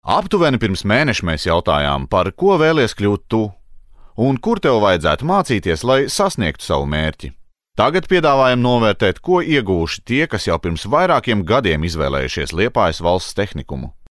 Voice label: latvian m narration, Latvian voice; voice demos